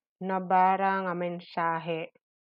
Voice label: Ilocano